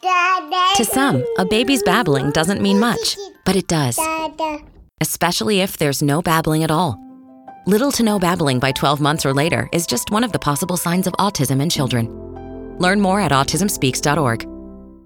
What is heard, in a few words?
Radio Eng; Autism Speaks